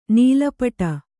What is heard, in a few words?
"ನೀಲಪಟ", Kannada